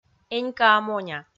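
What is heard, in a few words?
"энькаа-моня", Komi, female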